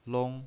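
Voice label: "long", pinyin, Mandarin Chinese, first tone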